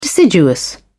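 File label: "deciduous", English, British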